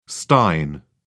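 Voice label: "stein", British English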